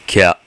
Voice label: "kya", kana